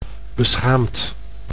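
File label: "beschaamd", Dutch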